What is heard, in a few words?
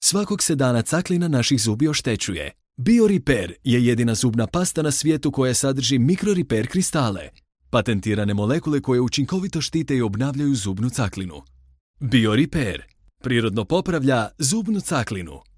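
Croatian, Commercial; GoLocalise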